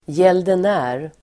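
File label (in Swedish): "gäldenär", Swedish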